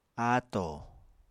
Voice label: "ato", Apatani

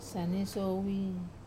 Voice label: "sane-soi", Wamesa

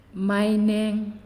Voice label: "Big", Naasioi; unitech

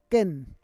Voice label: "ken", Roon

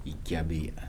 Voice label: "to soak", Kibembe

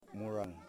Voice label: "Paddle", Yimas

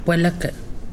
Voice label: "foot", Pingelapese

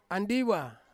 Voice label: "andiwa", Ansus; wasp

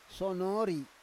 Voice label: "sonori", Roon

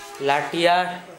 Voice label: "laʈiar", Gta, Dialect: Hill; shoulder blade

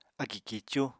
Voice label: "akì kíicú", Apatani

